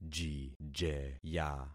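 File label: "jijeya", concatenated syllables; Affixing experiment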